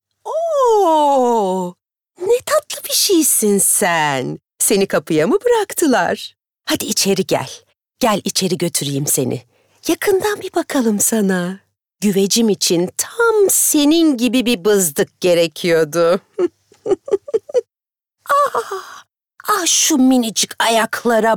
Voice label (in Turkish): Animasyon